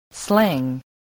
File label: "slang", voice, spelling